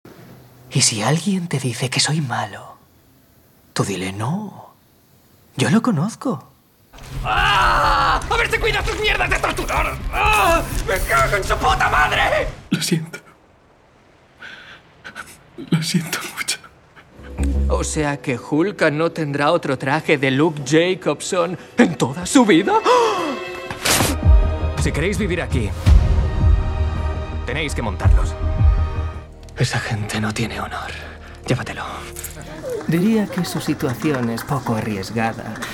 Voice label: spanish tv shows